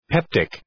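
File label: "peptic", English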